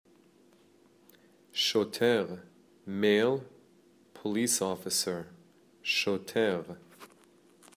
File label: Male Police Officer